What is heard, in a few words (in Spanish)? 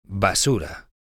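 "basura", Spanish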